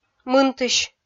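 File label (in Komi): "мынтысь", Komi, female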